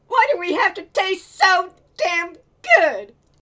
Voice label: Male Reactions